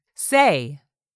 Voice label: "SAY", english; 3000 words